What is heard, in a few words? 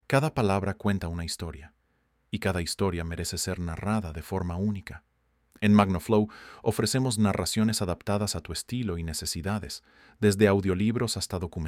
Smooth audio books